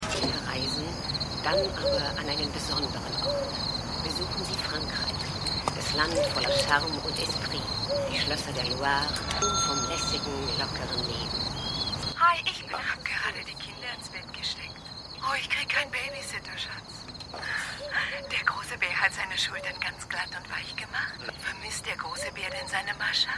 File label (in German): Sprecherin; Marcia; AusgerechnetAlaska